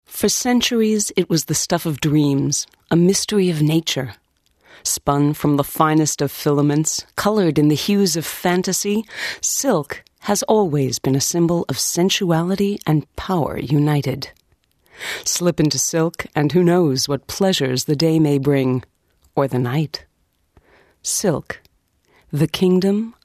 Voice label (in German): werbung